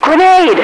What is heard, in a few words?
"grenade", radio, female